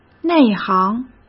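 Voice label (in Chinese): "内行", Mandarin Chinese; chinese-flashcards; hsk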